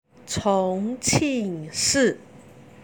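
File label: "chongqingshi", Chinese; 重庆市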